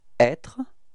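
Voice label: "etre", French; frans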